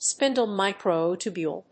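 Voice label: "spindle microtubule", English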